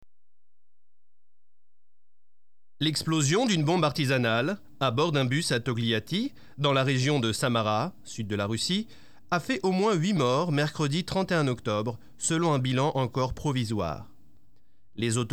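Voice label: french narration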